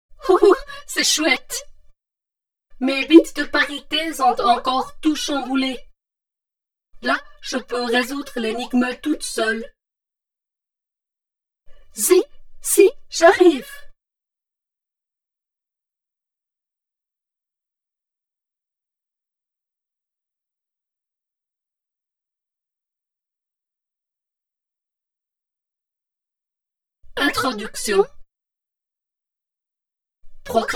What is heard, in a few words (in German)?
Sprueche mit FX